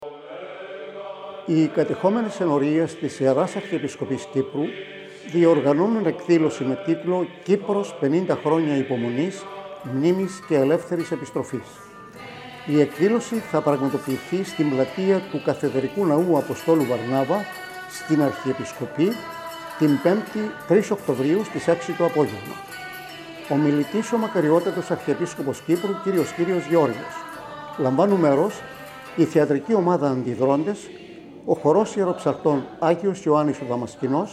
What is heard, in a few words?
radio promo; ΑΡΧΙΕΠΙΣΚΟΠΗ ΕΚΔΗΛΩΣΗ